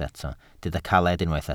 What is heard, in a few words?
"caled", Welsh